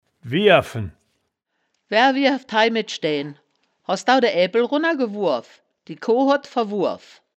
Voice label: "wierfen", wittlich

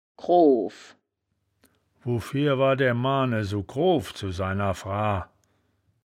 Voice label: "groof", wittlich

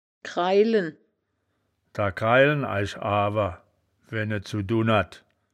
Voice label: "grailen", wittlich

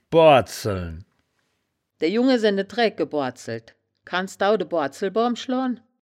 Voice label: "boorzeln", wittlicher

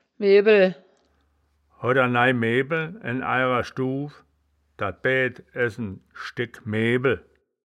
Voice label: "mebel", wittlicher; das wittlicher woerterbuch; woerterbuch vertonung

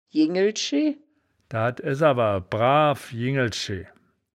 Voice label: "jingelschi", wittlich

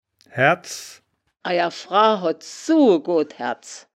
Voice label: "haerds", wittlich

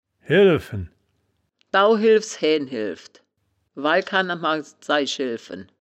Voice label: "helfen", wittlich

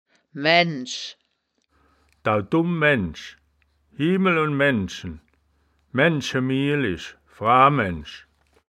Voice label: "maennsch", Wittlicher; das wittlicher woerterbuch; woerterbuch vertonung

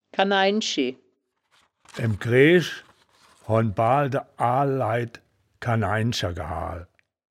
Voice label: "kanainschi", wittlich

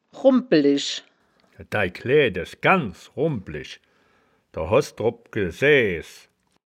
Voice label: "rumbpe lisch", wittlich

